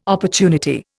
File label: "opportunity", Indian